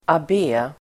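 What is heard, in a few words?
"abbé", Swedish; Swedish pronunciation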